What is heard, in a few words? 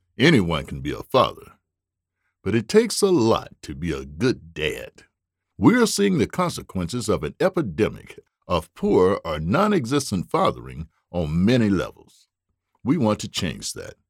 PODCAST BUMPERS; GOOD DADs KC; English (North American)